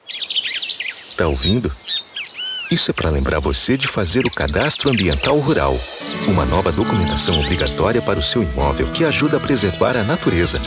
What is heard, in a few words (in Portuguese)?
Soft voz